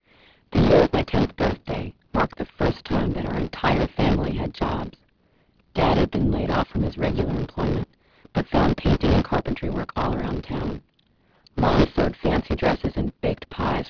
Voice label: female clean